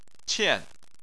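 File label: "qian", Chinese, tone 4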